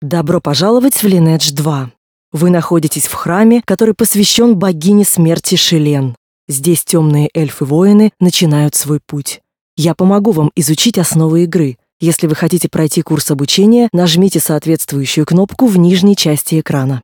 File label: tutorial voice ru; lineage2